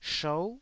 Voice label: "shou9", taiwanese Syllables